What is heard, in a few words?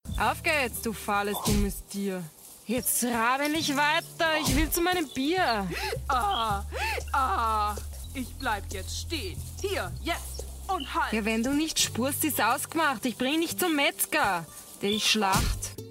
Audition Tape Viennese Children Audioplay